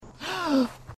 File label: nervous ahh